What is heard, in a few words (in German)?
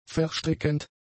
"verstrickend", German; adjectives